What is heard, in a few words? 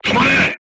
voice message short stop, japanese